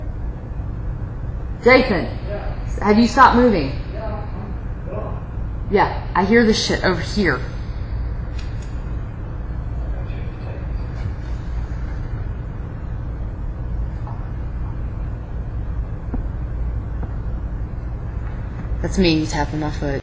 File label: Theatre Stage Male voice whispering; Barter Theatre; I got to check the tapes